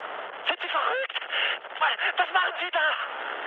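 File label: German player killed ally mood high, speech; War Thunder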